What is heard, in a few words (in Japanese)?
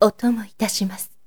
zyosei otomoitasimasu